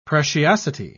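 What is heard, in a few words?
"preciosity", British English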